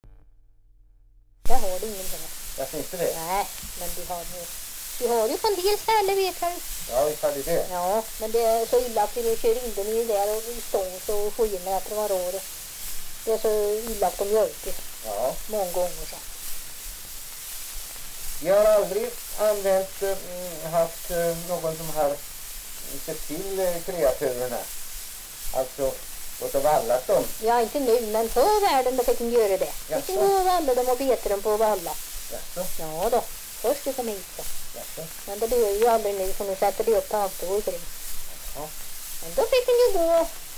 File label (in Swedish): dialekter; Folkminnen